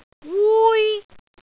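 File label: "wui1", Cantonese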